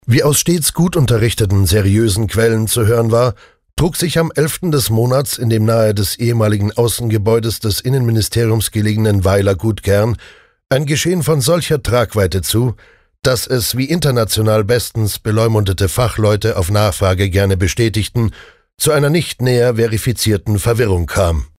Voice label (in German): Trocken Neutral